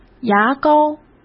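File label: "牙膏", Mandarin Chinese; hsk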